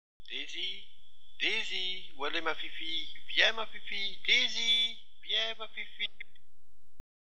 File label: daisy voice